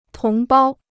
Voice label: "同胞", Chinese

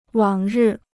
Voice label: "往日", Chinese

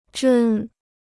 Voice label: "针", Chinese

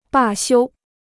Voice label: "罢休", Chinese

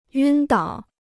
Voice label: "晕倒", Chinese

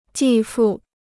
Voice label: "继父", Chinese

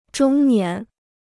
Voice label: "中年", Chinese

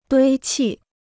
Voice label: "堆砌", Chinese

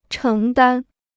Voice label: "承担", Chinese

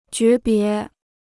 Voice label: "诀别", Chinese

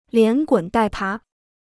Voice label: "连滚带爬", Chinese

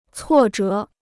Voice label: "挫折", Chinese

